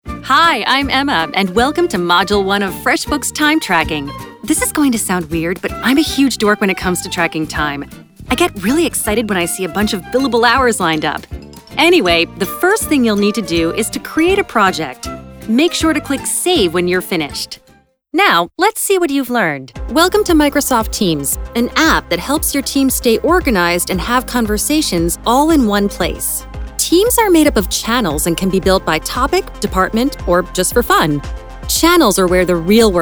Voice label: eLearning; Demo